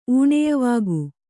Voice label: "ಊಣಯವಿಕ್ಕು", Kannada